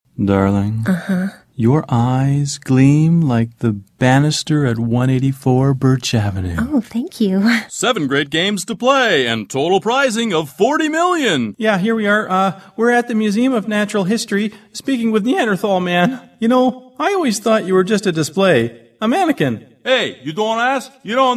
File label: commercial